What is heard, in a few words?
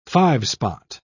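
"five-spot", British English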